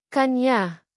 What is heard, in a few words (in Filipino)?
"kaniya", Tagalog; tagalog pronouns